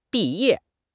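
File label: "bi4 ye4", Chinese; 汉语口语新时代-初级下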